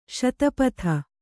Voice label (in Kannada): "ಸ್ಥಪತಿ", kannada